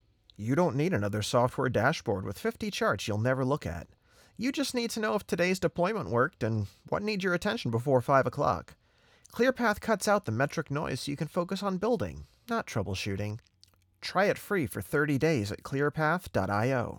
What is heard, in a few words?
Commercial Modern Tech B2B